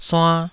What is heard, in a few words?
"suann2", taiwanese Syllables